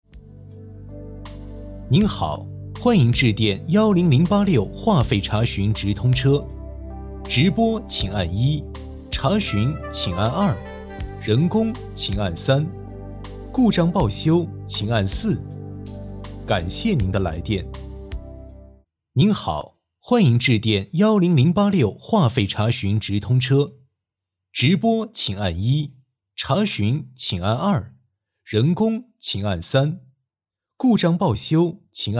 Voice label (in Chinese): 男, 广告, 彩铃